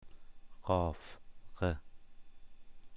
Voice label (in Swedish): "qaf", persiska